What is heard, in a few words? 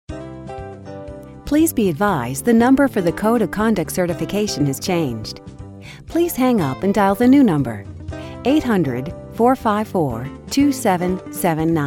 English US Audiobook IVR